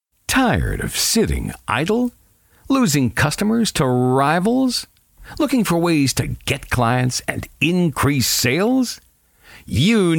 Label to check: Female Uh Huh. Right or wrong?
Wrong